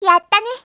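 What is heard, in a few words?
"yattane", Japanese, yorokobu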